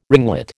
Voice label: "ringlet", American